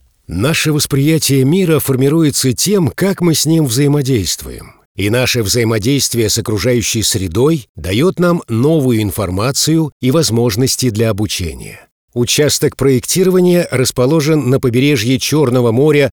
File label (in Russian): informacionno